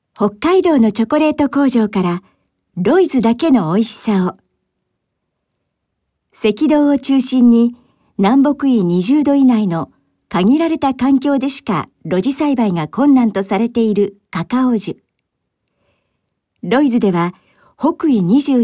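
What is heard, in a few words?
Japanese, commercial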